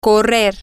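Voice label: to run, Spanish, female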